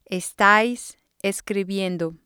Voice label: "estais escribiendo", spanish